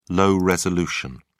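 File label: "low-resolution", British English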